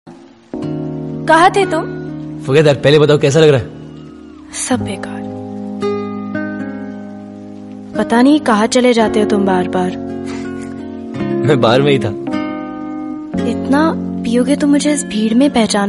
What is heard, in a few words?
Romantic Dialogue